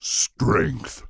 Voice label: Orc Male Guard Farewell